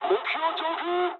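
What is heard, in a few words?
near waypoint mood med, speech japanese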